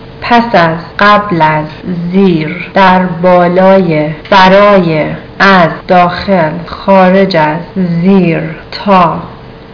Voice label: persian words